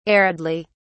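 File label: "aridly", British English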